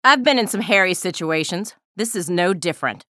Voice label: menu chatter; athena